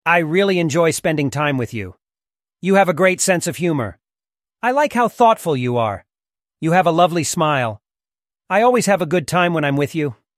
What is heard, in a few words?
synthesized audio